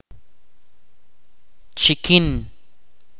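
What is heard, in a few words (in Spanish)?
"oído", Ch'orti'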